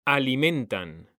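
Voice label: "alimentan", Spanish, male; mekanta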